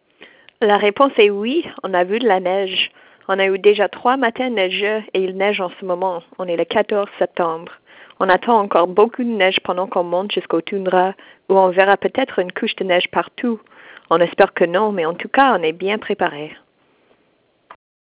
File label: voice message